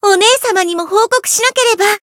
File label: growth, jp; sincere